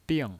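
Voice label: "bing", Mandarin Chinese, fourth tone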